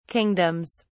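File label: "kingdoms", British English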